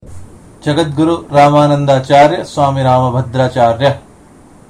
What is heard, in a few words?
Sanskrit Pronunciation; Jagadguru Rambhadracharya